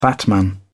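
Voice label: "batman", British English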